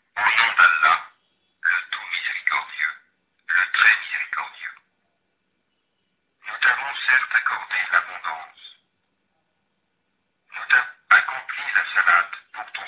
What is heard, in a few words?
French